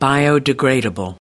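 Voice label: "biodegradable", US English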